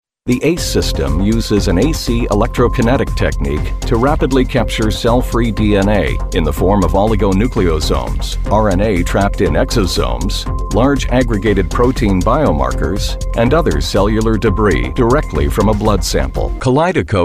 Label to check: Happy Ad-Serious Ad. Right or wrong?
Wrong